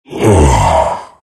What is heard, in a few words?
happy; Terrorblade